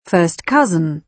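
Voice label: "first cousin", British English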